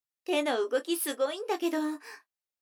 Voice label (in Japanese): 女の子 台詞